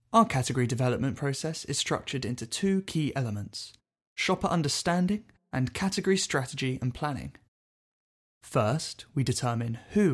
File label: Merchandising Training eLearning Course